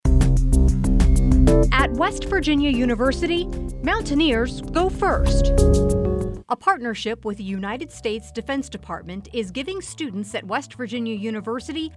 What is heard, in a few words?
radio spot; cybercom